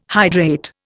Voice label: "hydrate", Indian